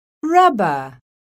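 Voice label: "RUBBER", English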